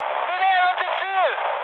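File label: German near waypoint mood high, speech; War Thunder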